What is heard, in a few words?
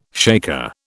"shaker", British